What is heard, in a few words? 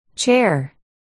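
"chair", spoken word, articulation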